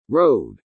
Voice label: "road", English